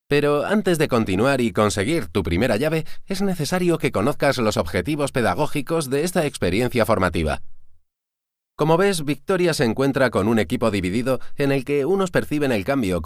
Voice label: Spanish EU, M, Elearning